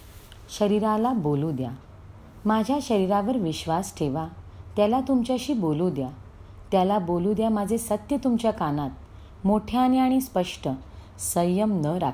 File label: marathi